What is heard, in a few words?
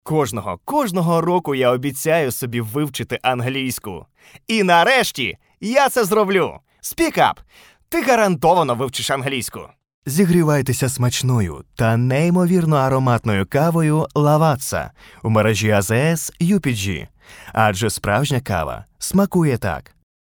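Ukrainian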